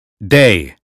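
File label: "day", American phonetics; 英语音标发音（剑桥版）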